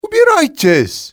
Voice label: go away, male; XBOX; civ